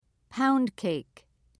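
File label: "pound cake", British English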